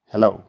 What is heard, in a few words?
Voice message